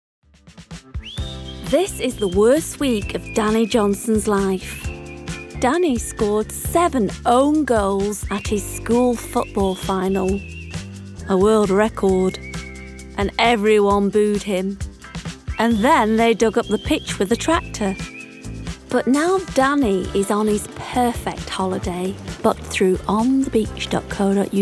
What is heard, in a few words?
Commercial On The Beach